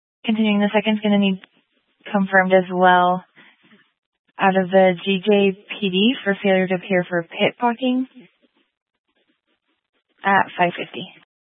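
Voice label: Police Dispatch, Scanner audio recording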